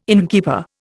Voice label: "innkeeper", Indian